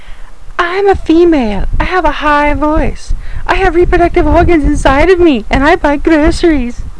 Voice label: Female